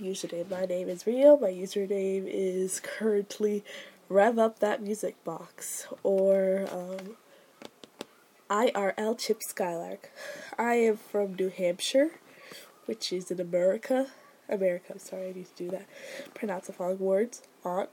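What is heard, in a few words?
accent challenge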